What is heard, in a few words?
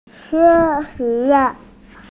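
"qian bi", Mandarin Chinese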